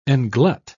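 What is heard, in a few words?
"englut", British English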